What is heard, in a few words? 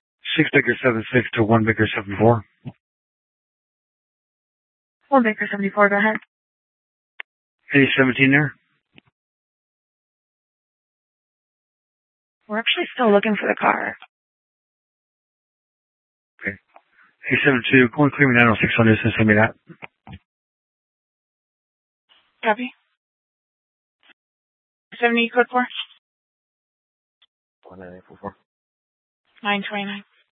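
Mesa Police Department Superstition Dispatch; Mesa PD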